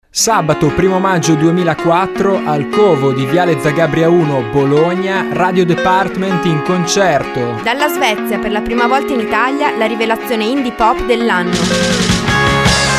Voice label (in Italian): spot radio